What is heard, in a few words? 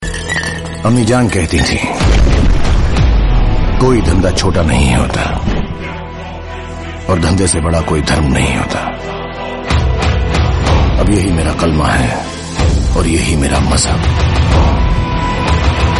bollywood dialogue, ringtone